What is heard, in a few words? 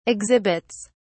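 "exhibits", British English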